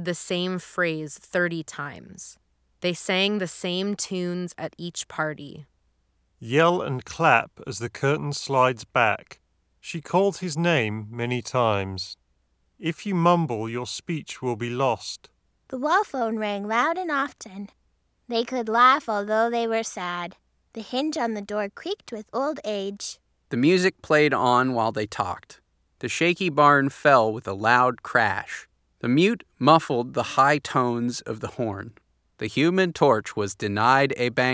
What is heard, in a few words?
Ideal microphone sample; Roccat ELO X Stereo